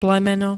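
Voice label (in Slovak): "plemeno", Slovak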